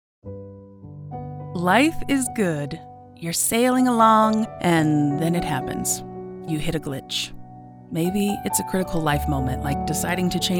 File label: Deep, Attentive, Endearing, Confessional, Comforting